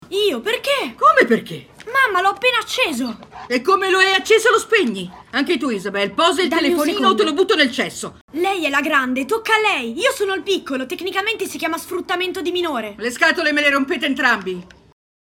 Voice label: voce parlata ragazzino 14 anni film cinema

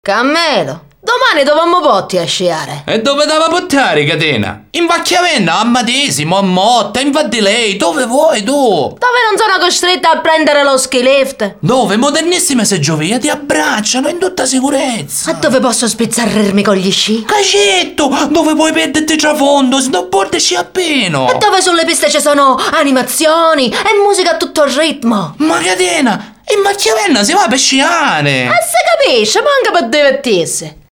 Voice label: siciliano; Valchiavenna

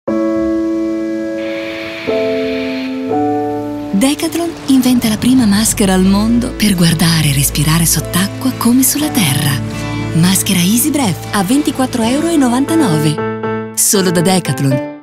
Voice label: spot; Maschera da snorkeling Easybreath di Subea; Decathlon Italia

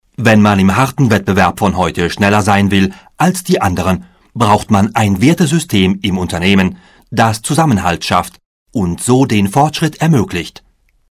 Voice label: Istituzionale

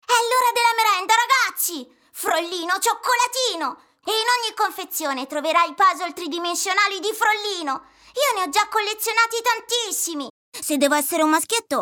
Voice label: voci bambini

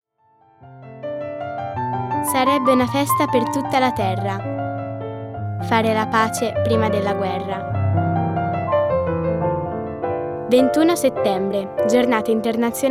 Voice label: istituzionale pace

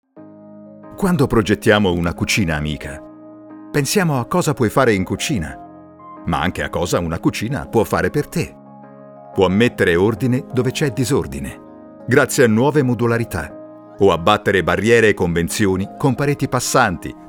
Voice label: friendly morbido naturale semplice

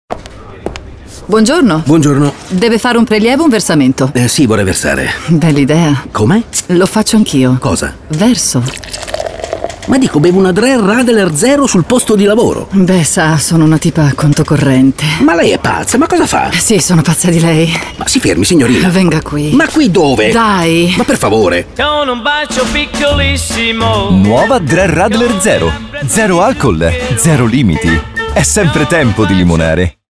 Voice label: spot; dreher lemon zero; banca

